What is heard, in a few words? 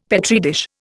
"Petri dish", Indian; Dictionary